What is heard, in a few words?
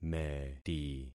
"meti", concat syllables; Affixing experiment